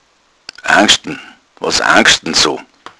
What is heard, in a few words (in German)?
angschdn, bayerischen Dialekt